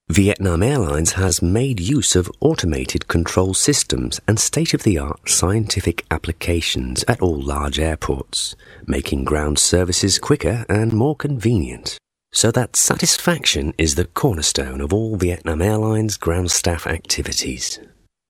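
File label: English British male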